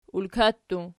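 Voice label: "ulka" plural, Archi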